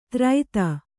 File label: "ತ್ರೈತ", Kannada